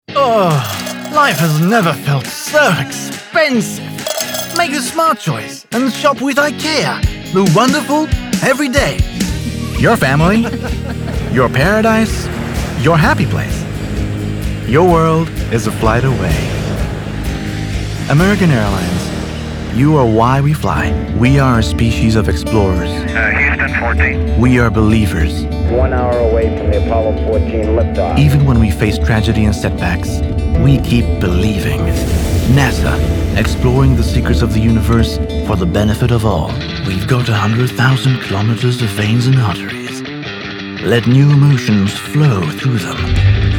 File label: English Commercial